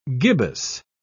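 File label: "gibbous", British English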